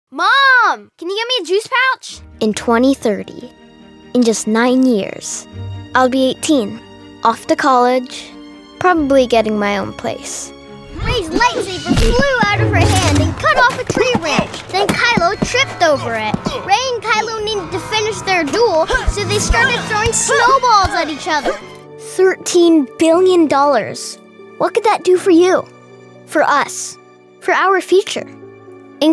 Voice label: Real Kid Voices